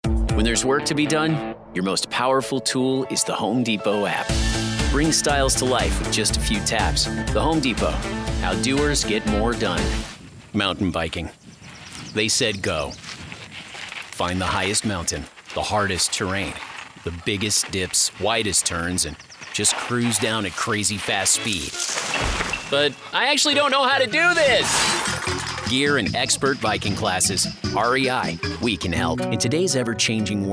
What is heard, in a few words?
Commercial